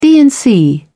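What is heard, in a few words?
"d and c", British English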